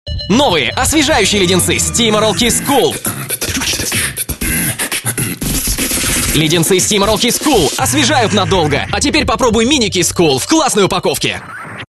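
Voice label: Russian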